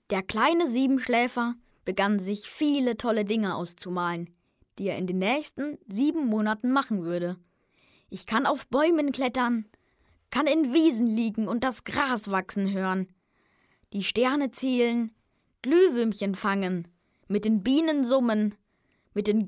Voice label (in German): jungen; Siebenschläfer